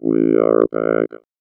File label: VOICEFX ELCTR 140; Techno2